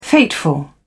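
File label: "fateful", British English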